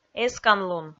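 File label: "эсканлун", Komi, female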